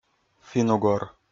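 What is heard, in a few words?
"финн-угор", Komi, male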